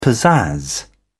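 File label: "pizzazz", British English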